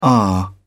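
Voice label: "aah", British English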